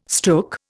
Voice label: "stook", Indian